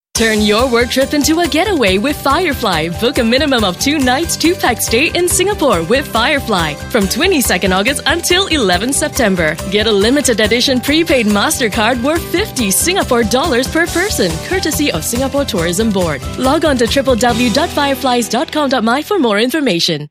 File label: ENG; FIREFLY; SINGAPORE